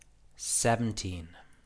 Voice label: "seventeen", English

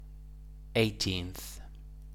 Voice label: "eighteenth", English

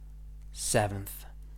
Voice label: "seventh", English

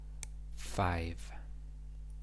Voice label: "five", English

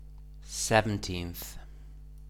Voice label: "seventeenth", English

